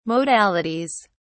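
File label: "modalities", British English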